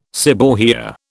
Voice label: "seborrhoea", British